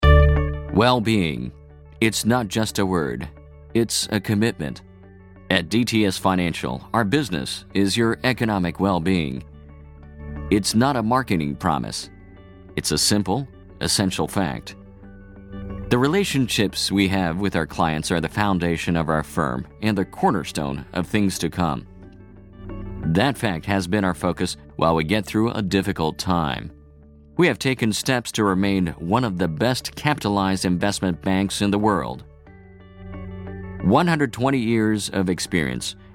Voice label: Eng narration